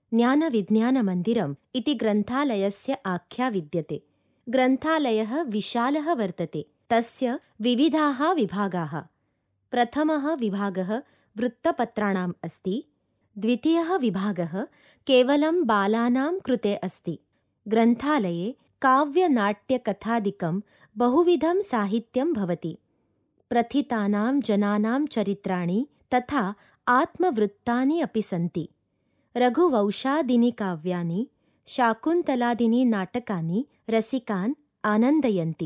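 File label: Sanskrit female e-learning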